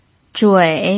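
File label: "zhui3", Mandarin Chinese pinyin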